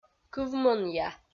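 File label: "кывмӧнъя" Komi, female; kpv audio dict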